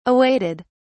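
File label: "awaited", British English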